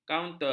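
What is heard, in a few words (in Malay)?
"kaunter", Malay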